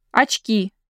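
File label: "glasses", Russian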